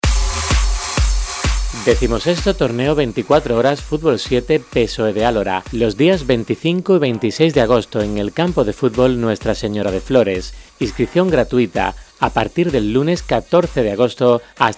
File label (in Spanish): ANUNCIO RADIO